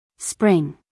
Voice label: "spring", us female; everyone-can-use-english